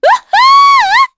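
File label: Happy, Korean; heroes; Shamilla; kings raid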